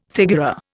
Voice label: "figura", Indian